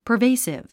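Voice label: "pervasive", English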